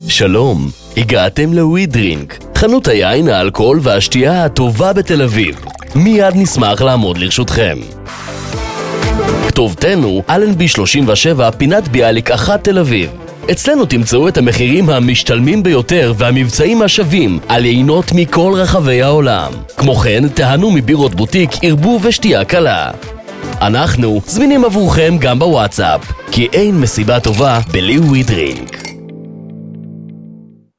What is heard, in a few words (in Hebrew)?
גינגל עסקי; ווי דרינרק